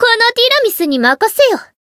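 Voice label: chant, Japanese; tiramisu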